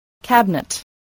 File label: "cabinet", spelling